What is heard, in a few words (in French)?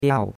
"biao4", chinois; Cours de Chinois